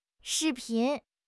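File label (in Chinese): "视频", Chinese; HSK6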